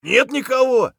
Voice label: clear, voice; Escape from Tarkov; scav4